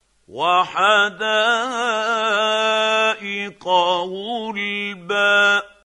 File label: quran, Hussary, tafseer; Abasa